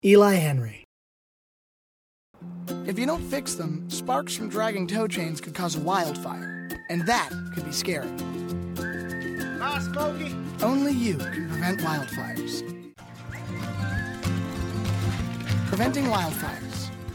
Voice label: commercial; Innovative LA